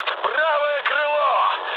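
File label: right wing, mood high, Russian; War Thunder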